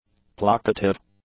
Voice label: "placative", english; adjectives